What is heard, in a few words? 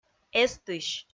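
"эстысь", Komi, female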